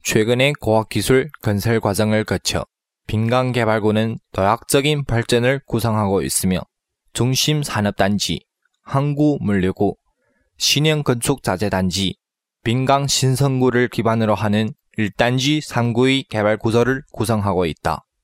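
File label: Korean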